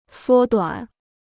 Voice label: "缩短", Chinese